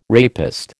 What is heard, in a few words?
"rapist", American